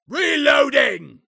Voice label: Dwarf Reloading